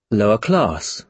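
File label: "lower-class", British English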